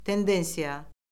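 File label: "tendensiya", Azerbaijani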